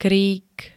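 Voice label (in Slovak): "krík", Slovak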